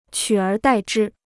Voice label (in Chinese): "取而代之", Chinese